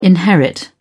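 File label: "inherit", British English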